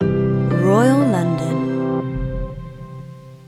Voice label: Mnemonic Spoken Female; Royal London